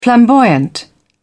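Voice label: "flamboyant", British English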